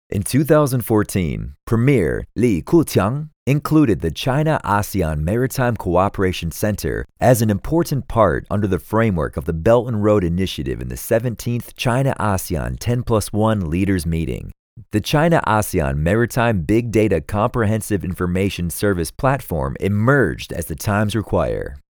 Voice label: 宣传片解说词; 中国-东盟海洋大数据平台China-ASEAN-Maritime-Big-Data-Platform

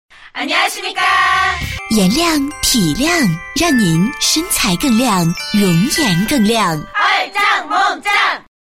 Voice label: 女 广告; 颜靓体靓

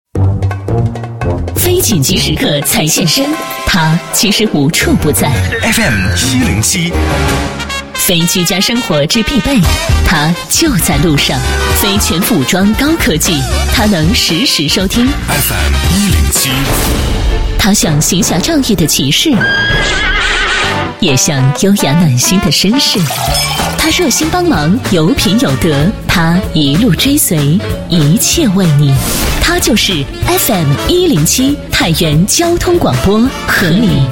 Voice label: 女4号 栏目包装 形象篇, 国语女声配音

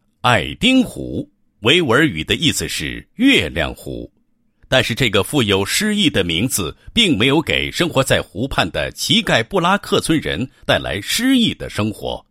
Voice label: 男 政府脱贫 配音; 恰特喀勒乡其盖布拉克村精准脱贫攻坚

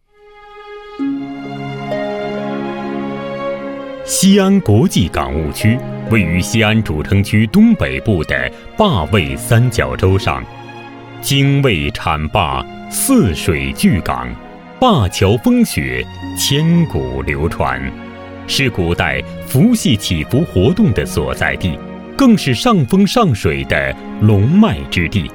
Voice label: 男 旅游新风向

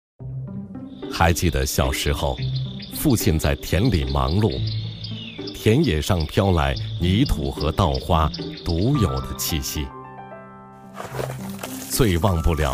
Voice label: 男, 舌尖风格; 周氏家

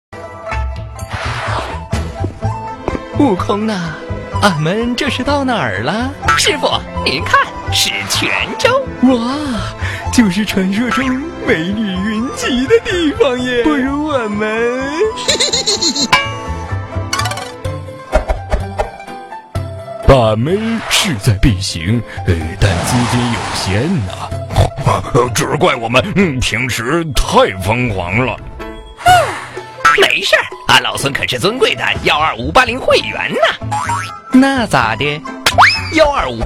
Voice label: 男, 模仿, 恶搞, 配音; 恶搞西游配音

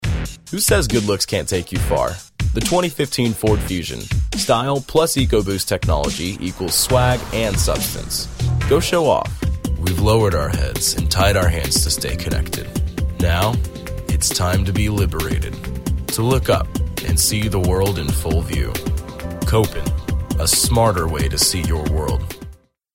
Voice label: 外籍英男-动感磁性

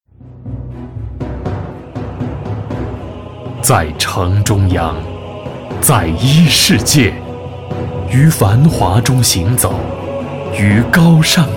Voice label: 男, 房产, 浑厚品质; 中央墅质公馆

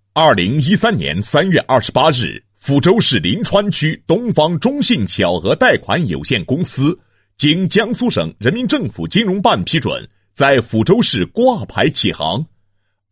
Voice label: 男 企业 宣传片; 小额贷款有限责任公司

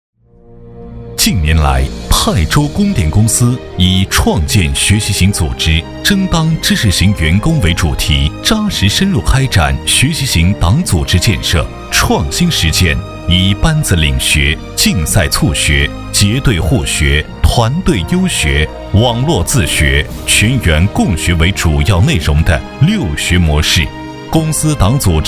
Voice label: 男 专题, 国语男声配音, 浑厚; 泰州供电公司

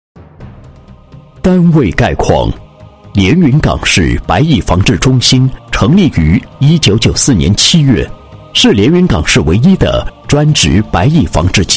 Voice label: 男 专题 白蚁防治中心叙述介绍 亲和舒缓, 国语男声配音

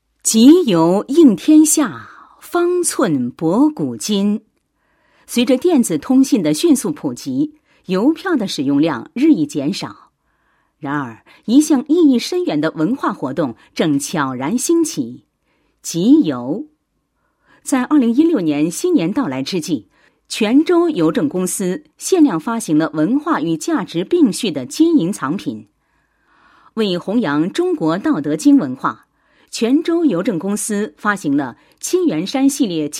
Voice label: 女 企业, 配音案例; 中国邮政集团公司某市分公司

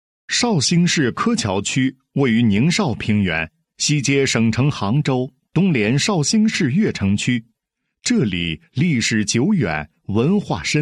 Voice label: 男 医疗 慢性病综合防治示范区, 配音案例

